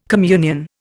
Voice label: "communion", Indian